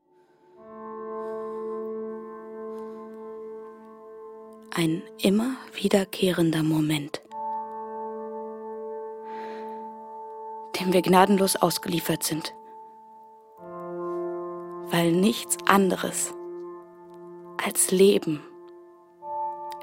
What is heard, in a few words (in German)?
Hoerspiel Sprachprobe; NACHT; LOFT VOICES